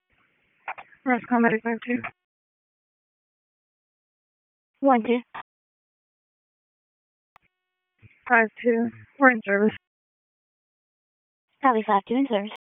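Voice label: EMS Dispatch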